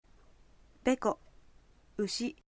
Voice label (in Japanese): ushi, aizuben